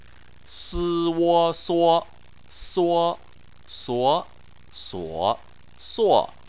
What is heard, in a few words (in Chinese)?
"suo", Chinese